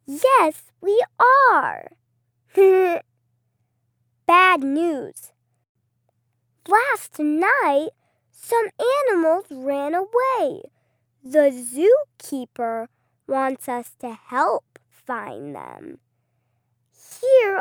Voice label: 外籍女童声, 问答

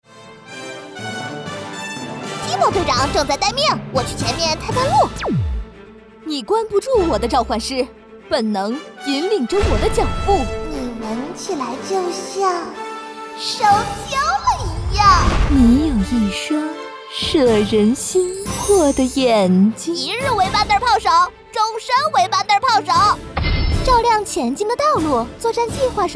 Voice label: 女 角色 成女男童女童

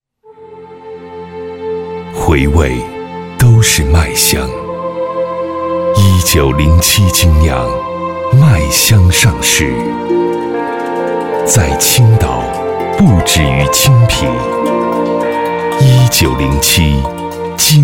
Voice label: 男 广告 精酿啤酒

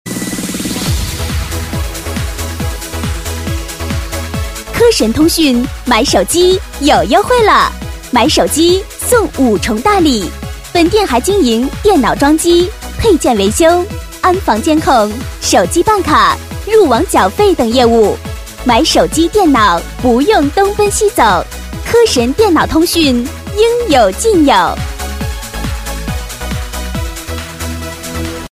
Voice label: 女, 促销, 国语女声, 年轻

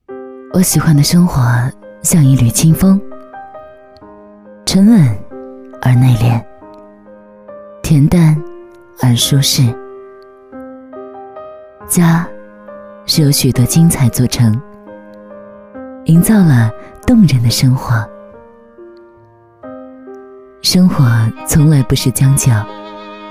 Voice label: 女 独白; 我喜欢的生活

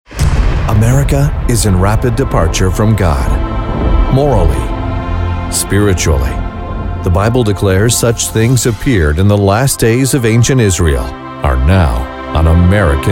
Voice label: 外籍男 商业广告 Commercial; Oral Roberts

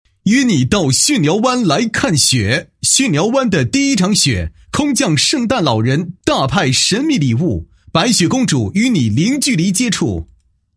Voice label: 男 宣传广告 演唱会宣传; 你到巽寮湾来看雪

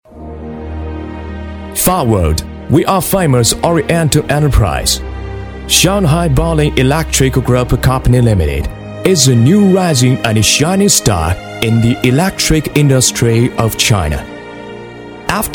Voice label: 英男, 大气, 刚柔并济

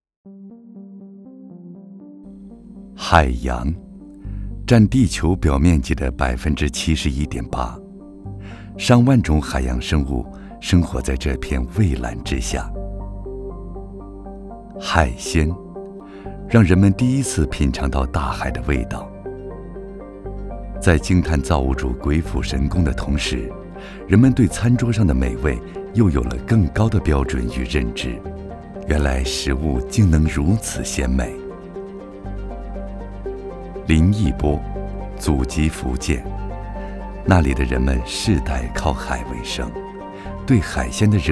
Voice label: 男 海鲜样音

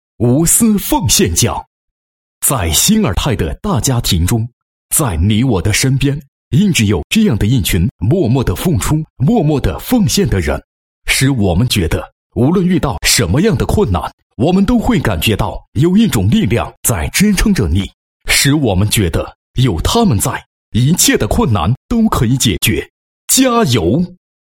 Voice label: 男 颁奖 颁奖词; 鑫尔泰颁奖词

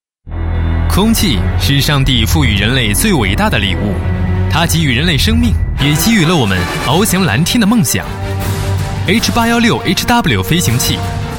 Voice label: 男 广告; H816HW飞行器

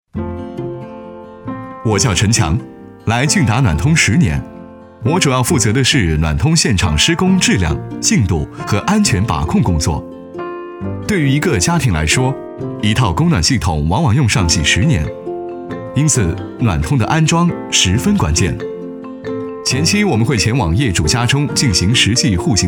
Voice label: 男 专题 角色 年轻 口语 自然, 国语男声配音 磁性

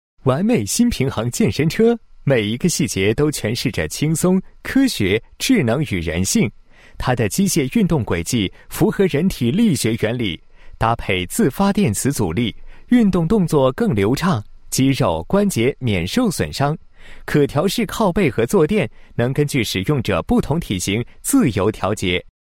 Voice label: 男 产品介绍 健身车介绍; 索弗851健身车介绍

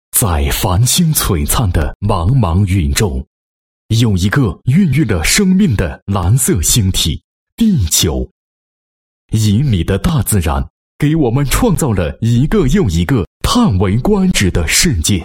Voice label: 男 宣传广告; 纳英特 九宫建设宣传广告

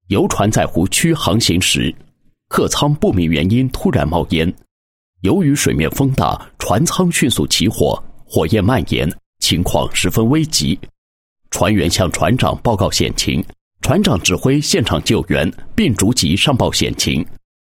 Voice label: 男, 工作; 鄂尔多斯市地方海事局水上工作演练

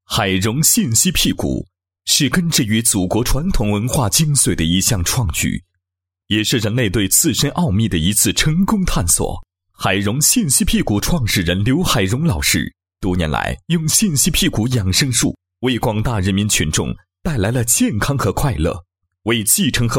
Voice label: 男, 解说 辟谷解说配音; 海荣信息

